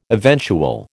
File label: "eventual", American